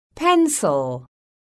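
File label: "PENCIL", English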